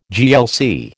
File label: "GLC", American